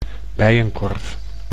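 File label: "bijenkorf", Dutch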